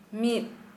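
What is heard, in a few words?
Santali, female, alone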